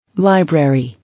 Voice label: "Library", English

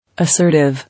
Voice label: "Assertive", English

